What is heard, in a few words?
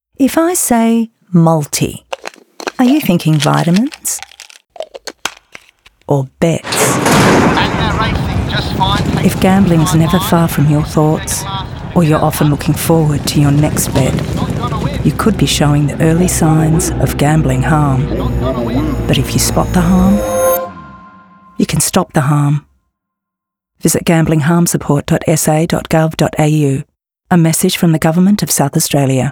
Radio; Spot the Harm. Stop the Harm.